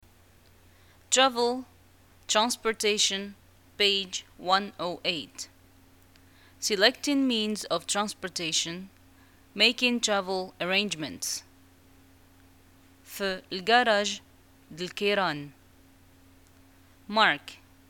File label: dialogue, Arabic, North African, Moroccan